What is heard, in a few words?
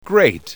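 "great", English word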